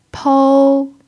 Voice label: "pou1", mandarin pinyin

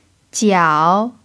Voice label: "jiao3", Mandarin pinyin

